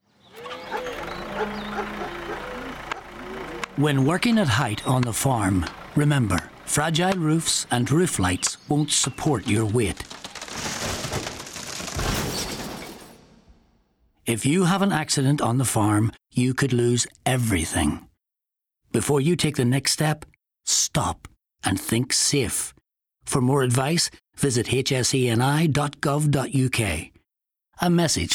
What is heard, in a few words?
radio falls, radio adverts; stop and think safe radio adverts